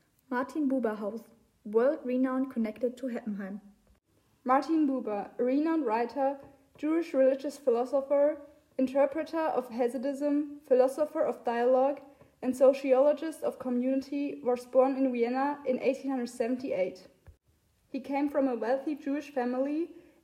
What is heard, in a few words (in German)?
neue Aufnahme ohne Hintergrundgeraeusche; Martin-Buber-House